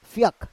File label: "fyak", Roon